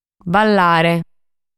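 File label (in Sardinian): "Ballare", Sardinian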